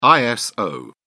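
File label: "iso", British English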